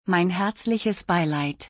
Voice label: My sincere condolences in German; تسلیت گفتن به آلمانی

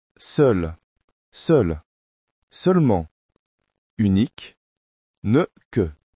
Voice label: alone in french

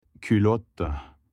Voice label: mens underwear in turkish

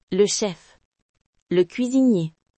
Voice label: chef in french

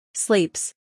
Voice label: "Sleeps", English

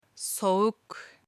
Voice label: cold in turkish

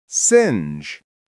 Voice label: monkey in french

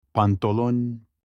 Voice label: pants in turkish

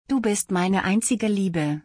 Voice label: You are my only love in German

